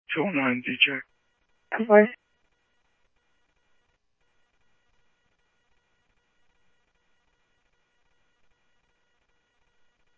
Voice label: PD Police, scanner audio

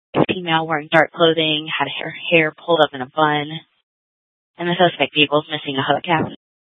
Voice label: Police Department, Dispatch; TOPAZ Regional Wireless Cooperative (TRWC) - Mesa Simulcast; 1 Fiesta Dispatch

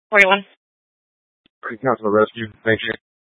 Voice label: Fire Dispatch

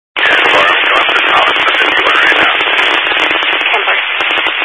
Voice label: Highway Patrol, Phoenix Metro East Area, scanner

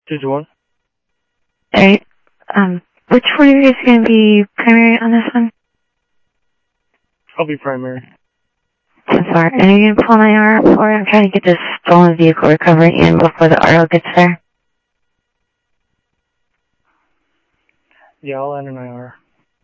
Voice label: Info Sheriff Common, scanner audio; MCSO - Info Sheriff - Common; ThompsonPeakScot

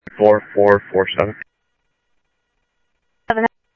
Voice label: Sheriff, scanner audio; SoutheastCenMesa; MCSO - EAST; Sheriff-EastCnty

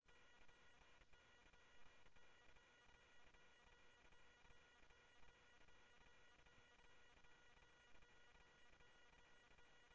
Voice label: Mesa Simulcast, MESA PD, scanner audio

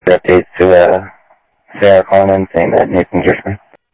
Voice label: South Scottsdale Sheriff, scanner audio

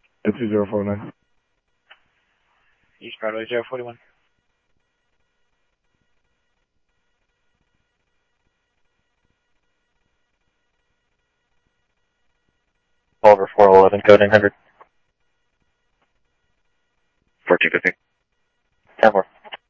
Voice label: Sheriff, scanner audio

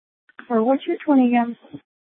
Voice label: Animal Control, scanner

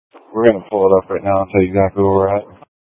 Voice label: Mutual Aid-Intersystem Interop B, scanner

